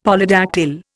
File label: "Polydactyl", Indian; Dictionary